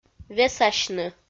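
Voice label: "весасьны", Komi, female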